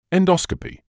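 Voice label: "endoscopy", British English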